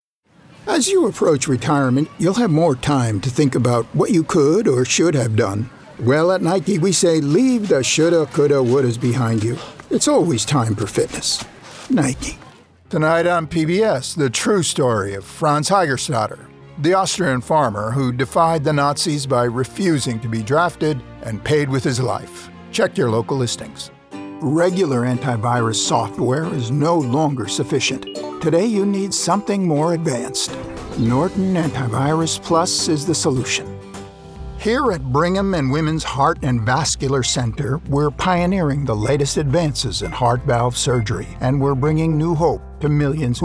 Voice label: Commercial